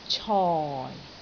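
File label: "coi4", Cantonese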